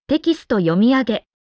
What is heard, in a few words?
voicefile Female